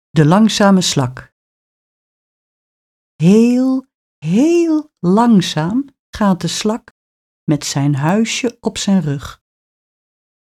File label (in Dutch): De langzame slak gedicht; kriebelbeestjes; leerjaar-2; taalsprong